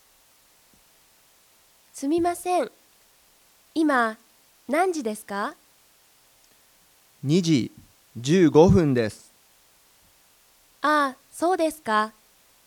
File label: Conversation